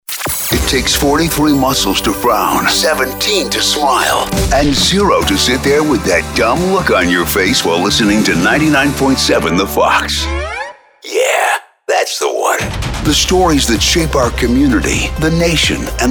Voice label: radio imaging men